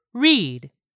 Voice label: "READ", English; 3000 words